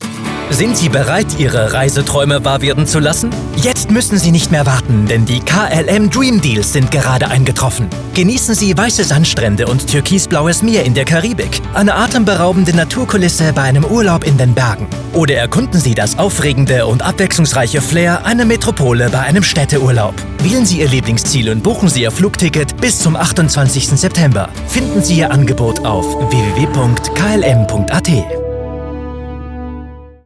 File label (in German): Werbung werblich; KLM